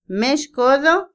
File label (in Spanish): reloj, Otomí, mujer; INALI